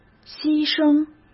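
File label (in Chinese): "牺牲", Mandarin Chinese; hsk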